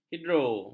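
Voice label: "hidro", Malay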